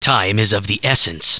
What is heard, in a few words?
annoyed; war2; humans; elven archer